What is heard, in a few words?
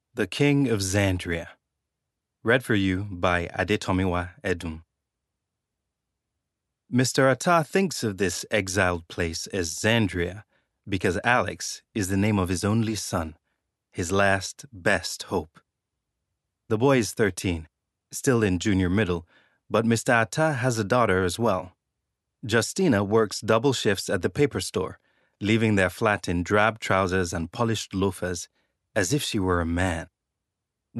Narration US Nigerian